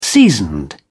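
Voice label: "seasoned", British English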